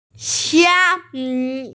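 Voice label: "xia mi", Mandarin Chinese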